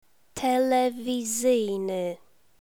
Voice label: "telewizyjny" Polish